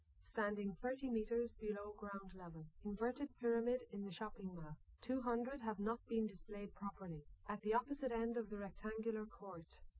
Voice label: female liverec; SiSEC08; SiSEC underdetermined